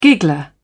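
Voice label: "giggler", British English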